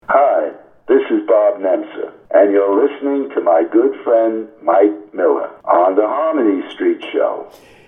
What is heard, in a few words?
promo